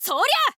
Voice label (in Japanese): thief boy attack